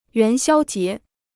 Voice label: "元宵节", Chinese